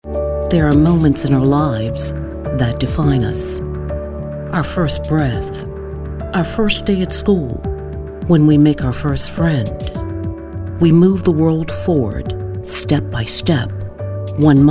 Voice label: warm

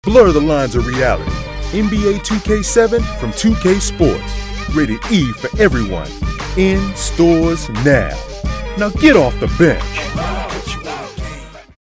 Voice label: Hip Hop

